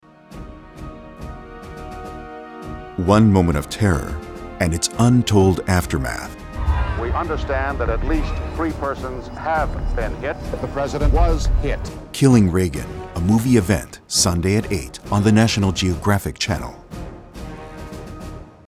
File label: promo; KILLING REAGAN